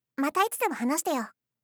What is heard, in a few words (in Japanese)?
"mata hanashite yo", voice, game; kiku